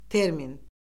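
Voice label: "termin", Azerbaijani; Orfoqrafiya Lüğəti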